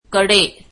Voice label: marathi to

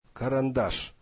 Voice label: russian pencil

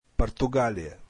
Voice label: russian Portugal

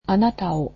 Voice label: "you", Japanese

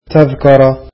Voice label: arabic ticket

